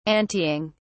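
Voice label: "anteing", British English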